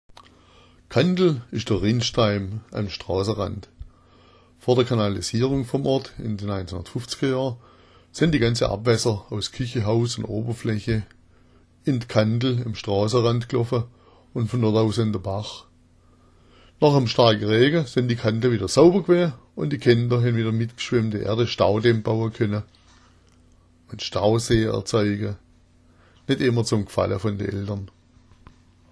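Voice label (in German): "kandel", schwäbisch; Kandl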